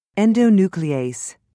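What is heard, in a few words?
"endonuclease", British English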